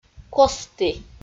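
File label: "костті", Komi, female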